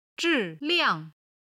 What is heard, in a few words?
"zhi4liang4", chinese